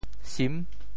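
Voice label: "sim6", Teochew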